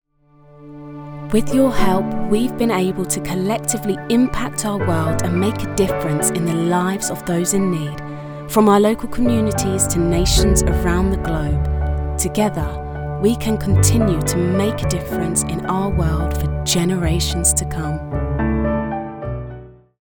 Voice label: female English commercial

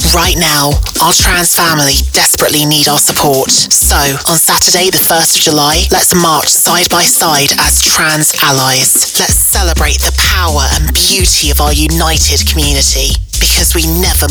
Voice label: transgender female english pride radio promo; gaydio; Devine Voices

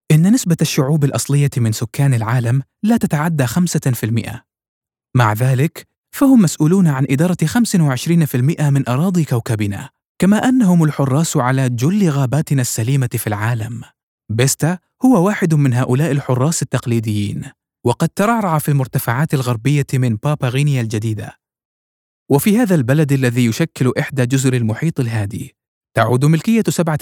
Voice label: male arabic documentary; Devine Voices

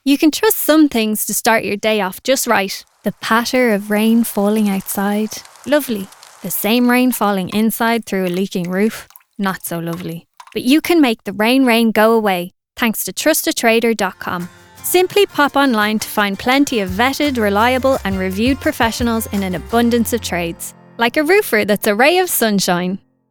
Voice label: female english irish commercial, irish southern; trustatrader com

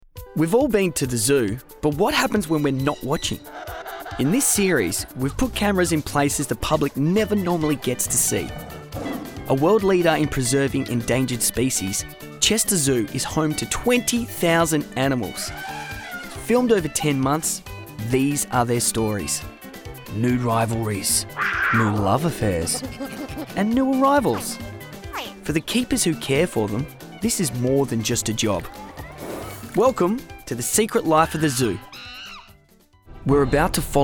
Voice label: male english australian documentary compilation; Devine Voices

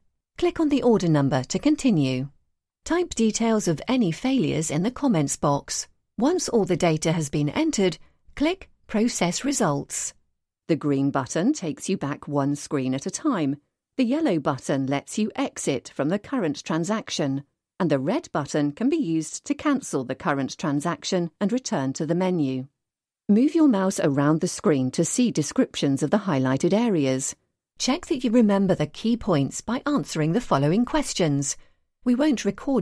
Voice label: female english e-learning module

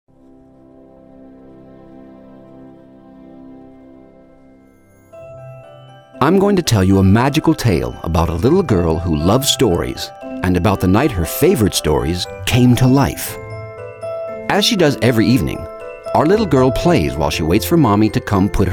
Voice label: male english usa commercial; philips disney storylight

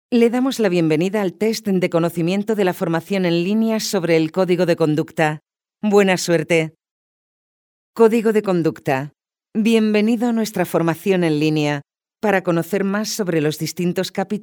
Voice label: female, Spanish Castilian e-learning; voices; devine voices

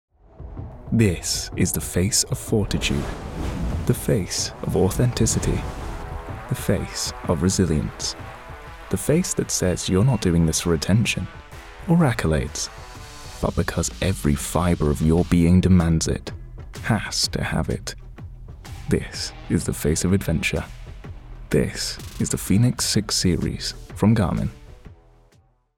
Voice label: male english commercial; Garmin; Scottish

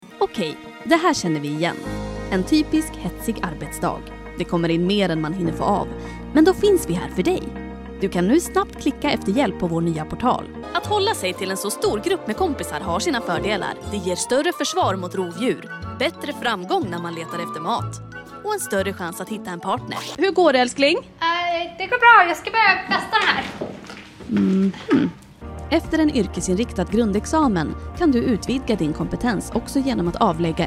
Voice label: female swedish corporate compilation

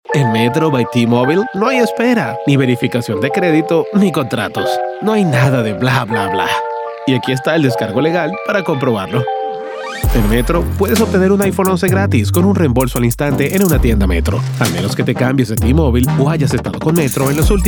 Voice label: male spanish venezuelan radio commercial; t-mobile; devine voices

